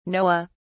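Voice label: "noah", British English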